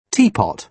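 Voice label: "teapot", British English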